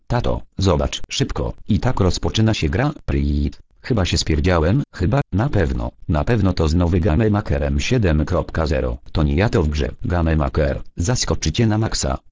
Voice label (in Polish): Reklama